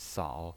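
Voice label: "sao", Mandarin Chinese pinyin voice, third tone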